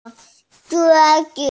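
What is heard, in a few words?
"zhuo1zi5", Mandarin Chinese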